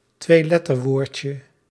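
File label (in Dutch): "tweeletterwoordje", Dutch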